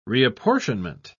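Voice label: "reapportionment", British English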